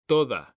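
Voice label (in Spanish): "toda", Spanish; mekanta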